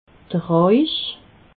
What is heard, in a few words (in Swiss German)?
"roich", alsace